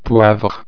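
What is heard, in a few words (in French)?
"sel poivre", French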